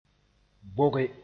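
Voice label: "boge", Mooré; vaccinate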